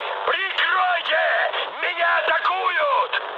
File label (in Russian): aircraft damaged, mood high, speech ru